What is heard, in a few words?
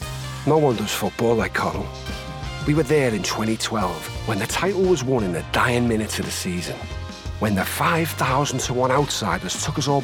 commercial reel